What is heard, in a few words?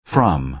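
"from", American English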